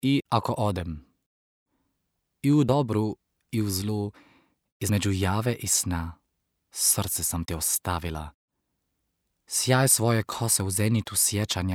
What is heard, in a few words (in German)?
Kroatisch